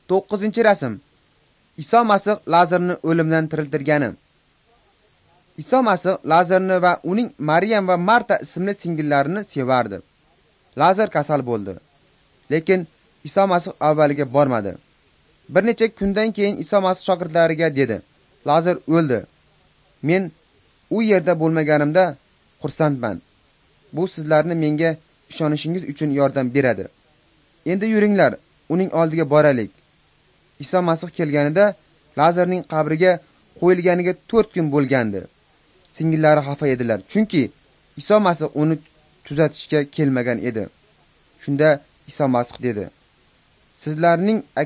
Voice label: uzbek northern